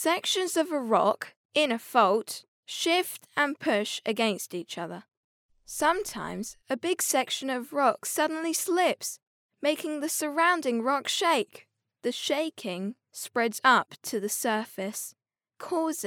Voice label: girl british voice over narrative, english british